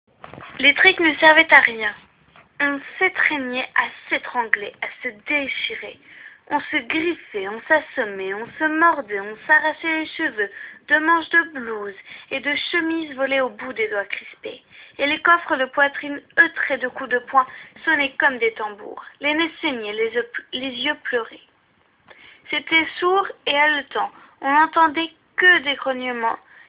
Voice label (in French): lecture expressive